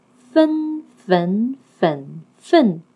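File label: "Feng", Chinese syllable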